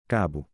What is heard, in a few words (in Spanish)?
"Cabo" en portugues